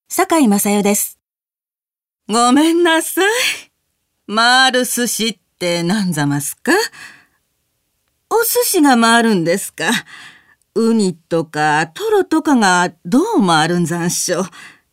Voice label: drama